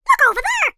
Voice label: cartoon character high pitched voice says look over there